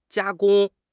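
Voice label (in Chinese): "加工", Chinese; HSK6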